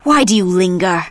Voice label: Blood Elf Female Standard Pissed